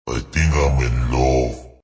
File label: Think Im In Love, Male